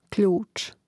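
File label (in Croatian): "kljuc", Hrvatski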